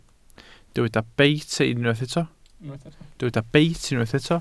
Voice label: "beit", Welsh